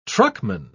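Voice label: "truckman", British English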